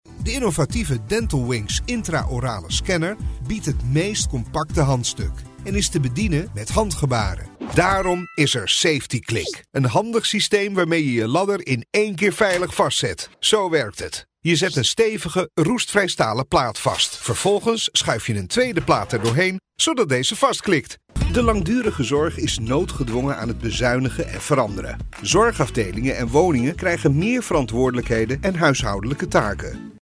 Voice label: dutch voice narration

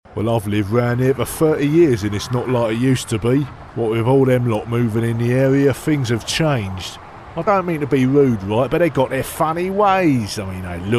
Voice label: cockney

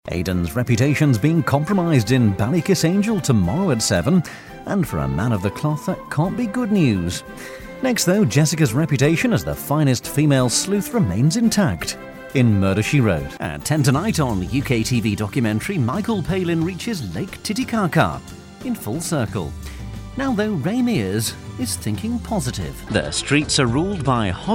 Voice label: continuity

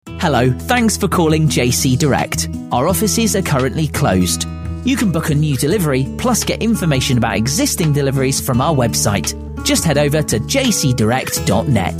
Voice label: telephone menu

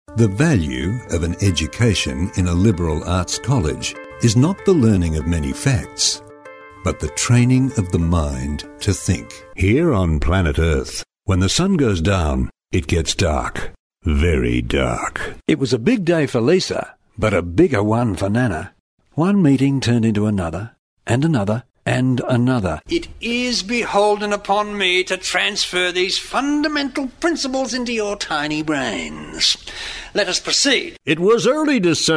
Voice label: australian voice; showreel

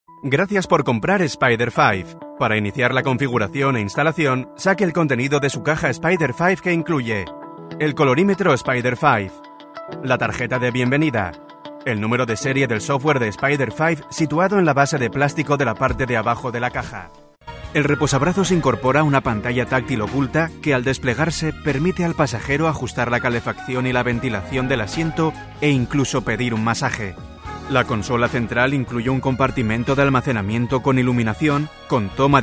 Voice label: spanish male e-learning

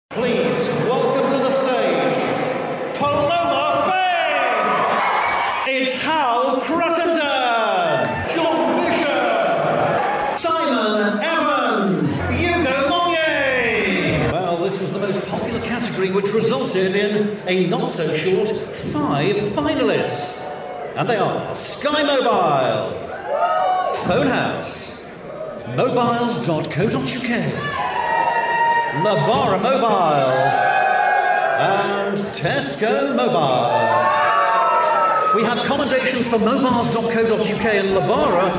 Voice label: voice of god (live event)

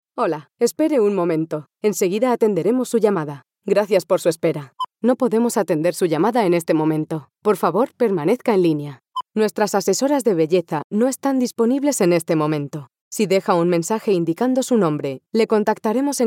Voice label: spanish voice telephone

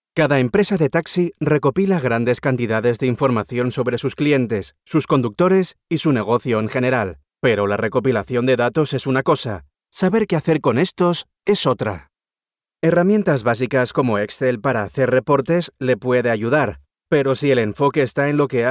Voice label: spanish male, corporate

